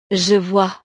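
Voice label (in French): "je vois", French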